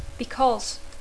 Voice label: "because", English

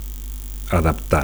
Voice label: "adaptar", Catalan; to adapt

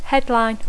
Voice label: "headline", English

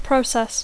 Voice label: "process", English

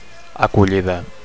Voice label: "acollida", Catalan; (f) welcome

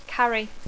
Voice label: "carry", English

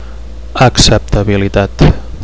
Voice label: "acceptabilitat", Catalan; acceptability

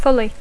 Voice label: "fully", English; adverbi; plenament; a fons

